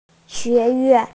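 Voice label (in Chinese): "xue2 yuan4" Mandarin Chinese, female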